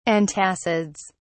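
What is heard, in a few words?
"antacids", British English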